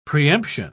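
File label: "preemption", English, British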